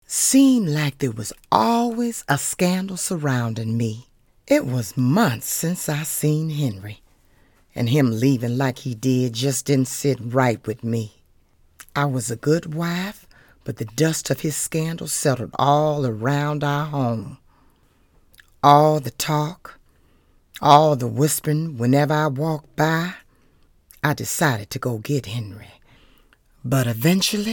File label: Voiceover film storytelling